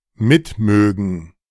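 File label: "mitmögen", German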